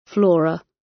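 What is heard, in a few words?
"flora", British; Flora and fauna, agriculture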